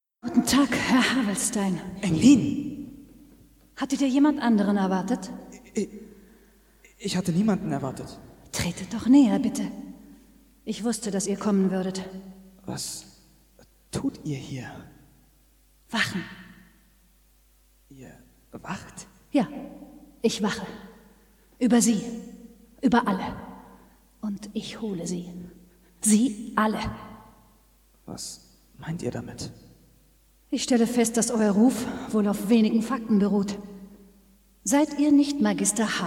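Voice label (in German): Sprecherin Hörspiel; Traenen des Engels; Rolle Englin Werberg; LOFT VOICES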